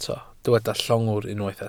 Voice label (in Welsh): "llongwr", Welsh